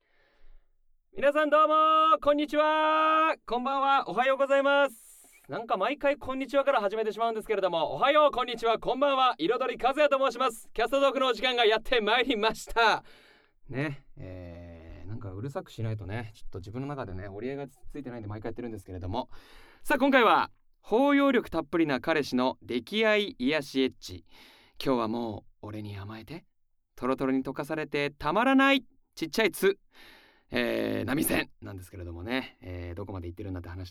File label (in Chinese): 声优访谈; 200DL突破纪念声优访谈; 充满包容力的男友的溺爱治愈性爱〜今天就尽情向我撒娇吧…沉醉得心荡神驰不能自已〜